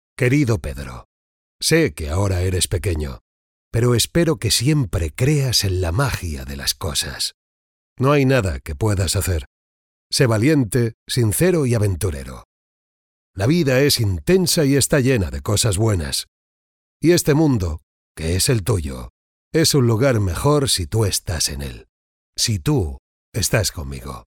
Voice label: locutor spanish voiceover